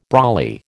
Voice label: "brolly", American